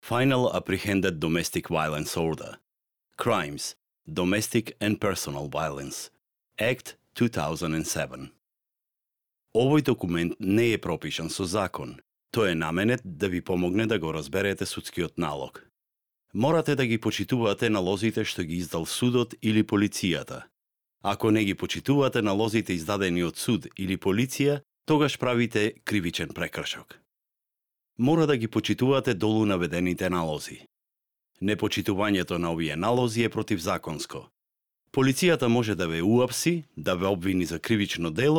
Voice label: macedonian; introduction

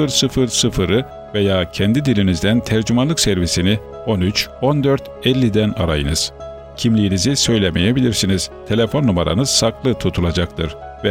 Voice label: Turkish Crime Stoppers

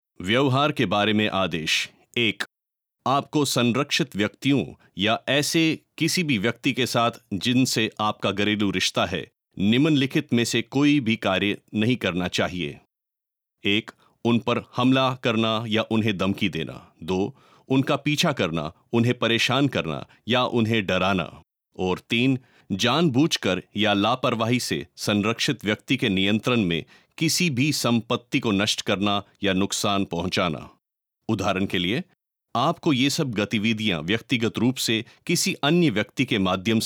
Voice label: hindi; orders about behaviour

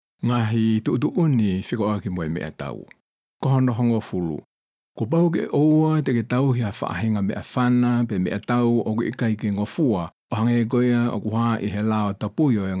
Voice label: orders about weapons tongan

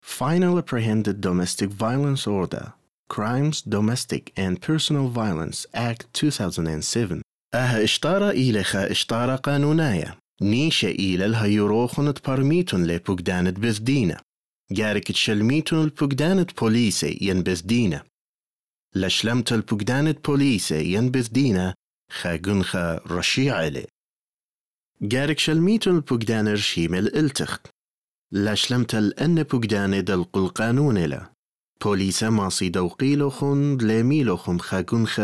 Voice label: introduction assyrian

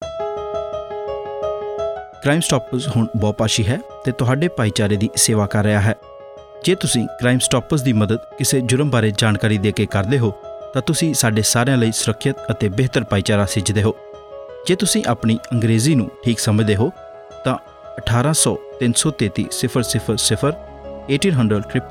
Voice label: Punjabi Crime Stoppers

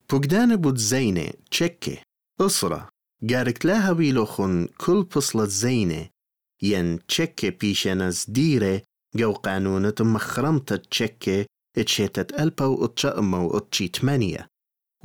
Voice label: assyrian; orders about weapons

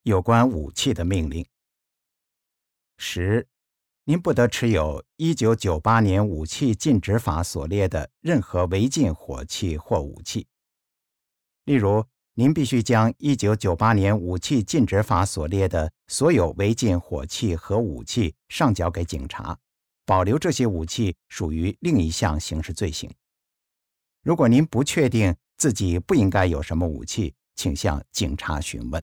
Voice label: mandarin; orders about weapons

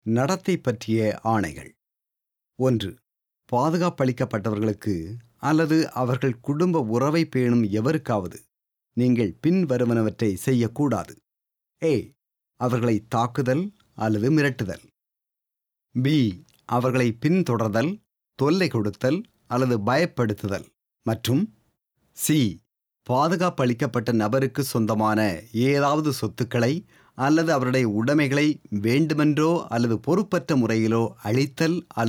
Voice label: orders about behaviour tamil

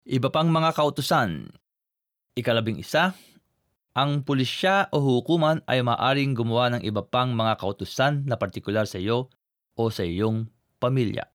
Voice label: filipino

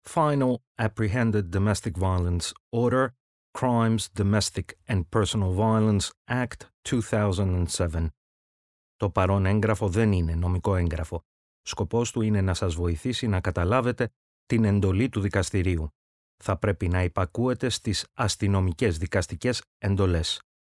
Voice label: introduction greek

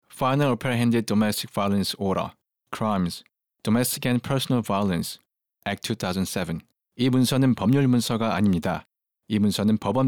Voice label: korean; introduction

